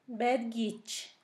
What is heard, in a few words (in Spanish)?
aviso citatorio, Zapoteco; Sustantivos; San Pedro Mártir; INALI